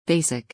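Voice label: "basic", English; béisik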